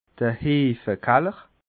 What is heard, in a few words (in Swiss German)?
"heefekaller", alsace